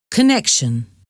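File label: "connection", English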